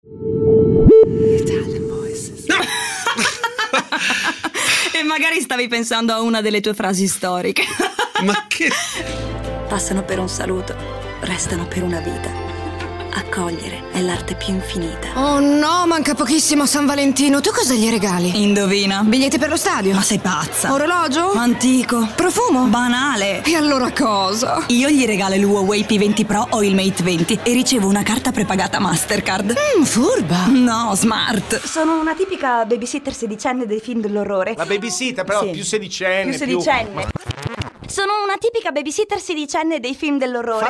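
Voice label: ITALIAN VOICES Dialog Natural, female voices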